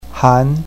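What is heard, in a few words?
"han2", Mandarin Chinese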